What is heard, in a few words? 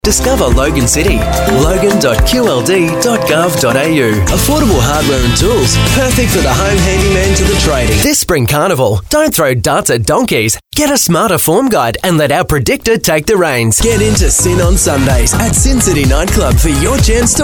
male talent retail